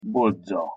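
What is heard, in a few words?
"bodza" pronunciation Hungarian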